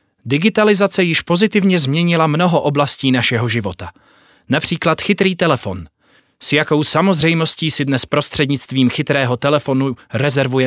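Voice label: Czech, male, narration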